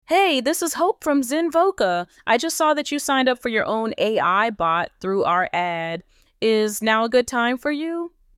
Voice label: english voice female